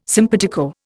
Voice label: "simpatico", Indian

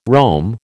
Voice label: "roam", American

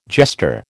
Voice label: "jester", American

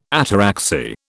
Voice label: "ataraxy", British

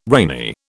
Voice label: "rainy", British

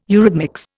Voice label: "eurhythmics", Indian

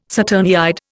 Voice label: "saturniid", Indian

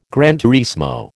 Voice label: "gran turismo", American

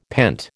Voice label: "pent", American

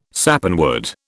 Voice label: "Sappanwood", British

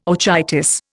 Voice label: "orchitis", Indian

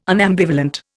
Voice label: "unambivalent", Indian

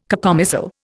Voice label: "cacomistle", Indian

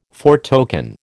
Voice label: "foretoken", American

